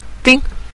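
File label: "ding" Mandarin Chinese, neutral tone; 补; bǔ ding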